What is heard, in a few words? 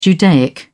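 "judaic", British English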